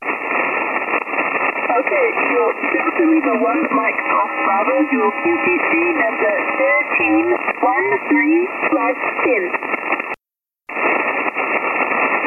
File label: ssb, pro; Training SSB QTCs (Pro); QTC list